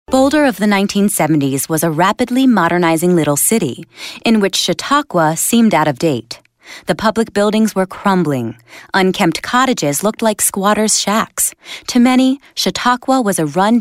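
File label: American English, female, narration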